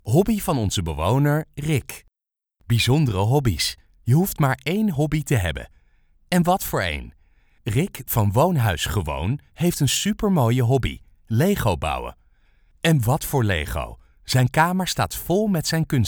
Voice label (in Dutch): Voiceover